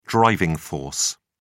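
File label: "driving force", British English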